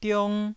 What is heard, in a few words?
"diong1", Taiwanese